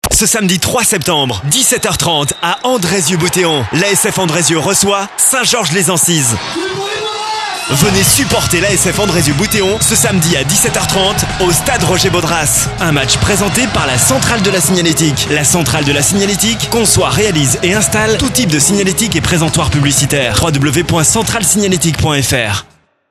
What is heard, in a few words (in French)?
radio pub spot; sports loisirs